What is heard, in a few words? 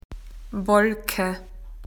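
"cloud", Australian English; PictureDictionary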